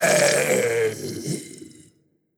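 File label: Skeleton Death voice; Skell Death V O3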